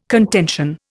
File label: "contention", Indian